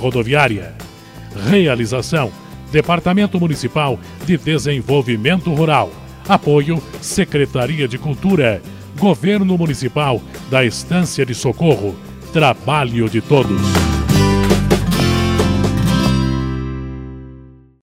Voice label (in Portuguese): chamada feira livre